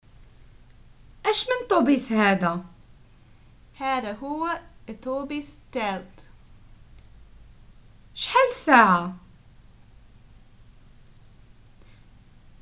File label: prompts, Moroccan Arabic